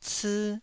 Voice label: "cii1", Taiwanese

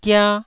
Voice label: "gia1", Taiwanese syllable

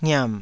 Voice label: "ngiam3", taiwanese syllables

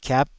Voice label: "kiap5", taiwanese syllables

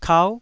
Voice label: "kau9", taiwanese syllables

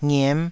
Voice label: "ngiem5", Taiwanese syllable

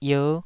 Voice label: "ier5", taiwanese

